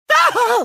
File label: pain; tf; crash